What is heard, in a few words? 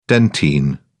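"dentine", British English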